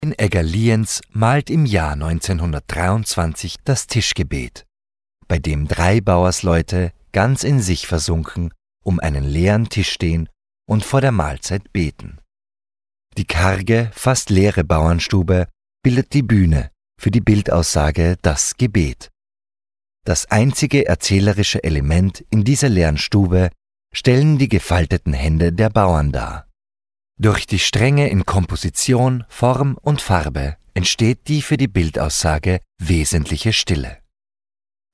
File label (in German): Audioguide D